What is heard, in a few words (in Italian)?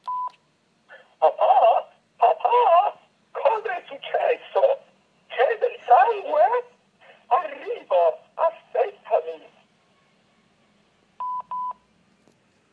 strano messaggio in segreteria